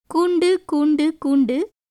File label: Tamil